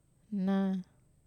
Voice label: "na", Wamesa